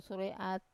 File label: "sura e at", fourteen, wamesa